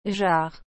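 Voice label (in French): "jars", French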